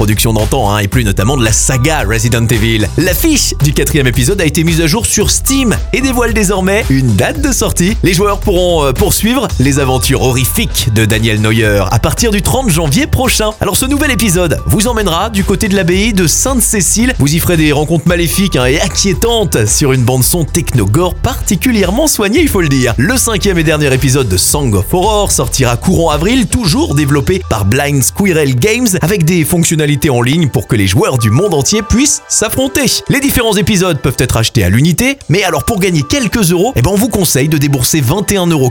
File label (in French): podcast micro ondes